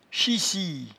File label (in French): "chissie", patois jura